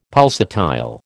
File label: "pulsatile", American